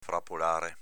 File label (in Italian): "frapolare", dialetto veneto; v. sgualcire, spiegazzare